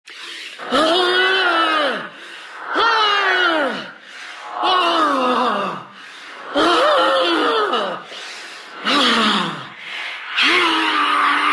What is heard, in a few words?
dpro wailing; dota; death prophet